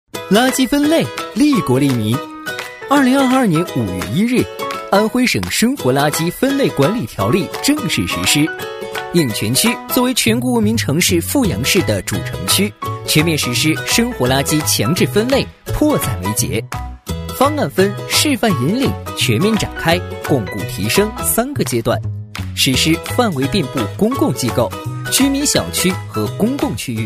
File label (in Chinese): 男 MG风格 垃圾分类 年轻轻松; 男WX-年轻多变; 轻松活泼